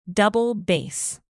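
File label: "double bass", English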